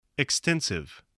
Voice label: "extensive", voice